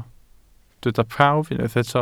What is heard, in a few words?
"prawf", Welsh